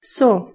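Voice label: "so" Bosnian, female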